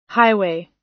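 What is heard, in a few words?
"highway", US English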